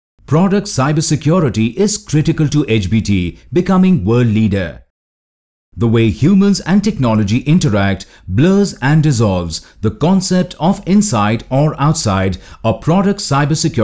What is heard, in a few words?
eLearning IT